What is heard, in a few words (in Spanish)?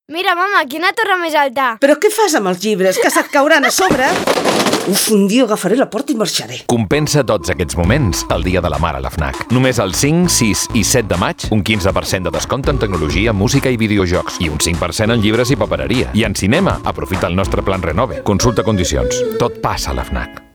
publicidad catalan